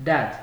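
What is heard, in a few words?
"dat", Nigerian Pidgin, male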